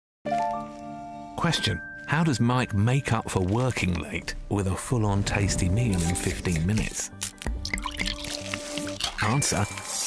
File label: Meat Free Spaghetti Bolognese TV Advert; Quorn